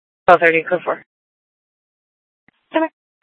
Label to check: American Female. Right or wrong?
Wrong